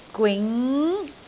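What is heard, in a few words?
"gwing2", Cantonese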